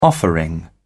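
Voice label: "offering", British English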